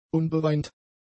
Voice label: "unbeweint", German; adjectives